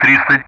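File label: Speech Sound Russian; DCS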